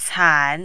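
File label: "can" tone 3, Mandarin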